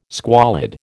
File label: "squalid", American